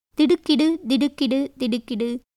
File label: Tamil